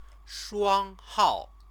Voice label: "shuanghao", Chinese